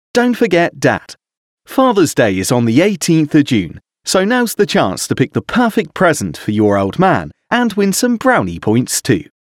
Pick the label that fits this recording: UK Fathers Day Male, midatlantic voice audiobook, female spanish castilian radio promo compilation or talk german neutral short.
UK Fathers Day Male